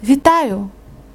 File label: dialogue, Ukrainian